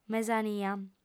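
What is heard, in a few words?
"mesania", female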